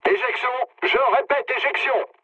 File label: bailout mood low, speech fr; War Thunder